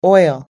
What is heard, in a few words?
"oil", us english